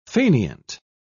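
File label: "faineant", British English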